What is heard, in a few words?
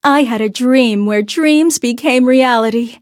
levelup, en; cookie run